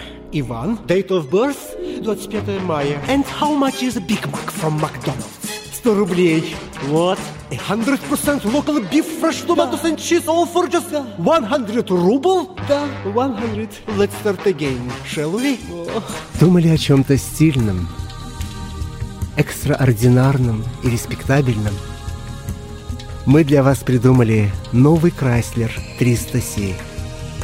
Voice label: Russian

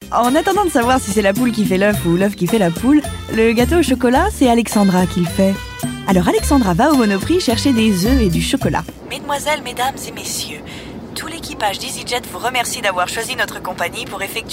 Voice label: French

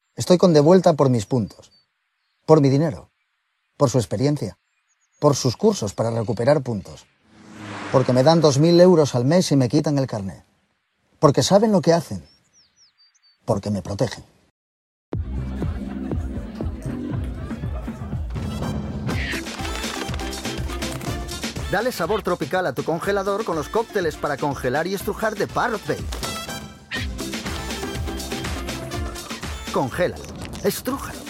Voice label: Spanish EU

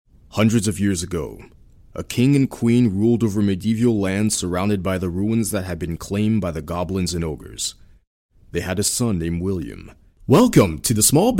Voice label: English US

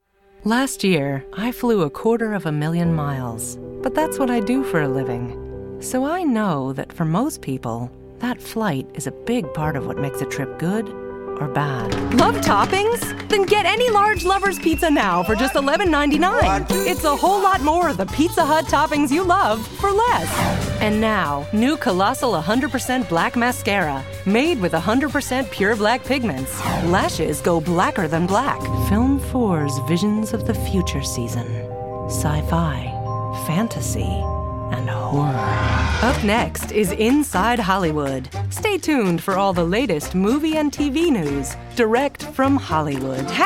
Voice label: English US

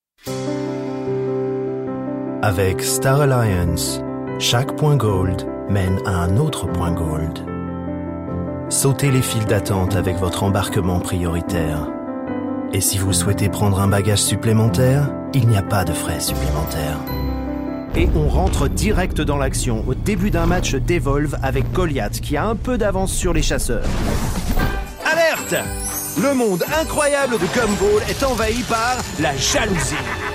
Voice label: French

